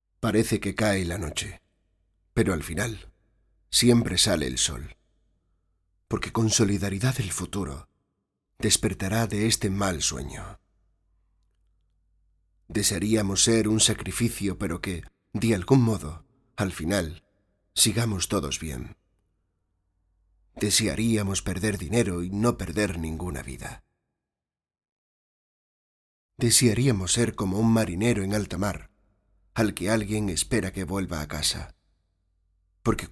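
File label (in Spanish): generico España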